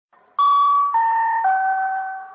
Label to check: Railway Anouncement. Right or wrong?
Right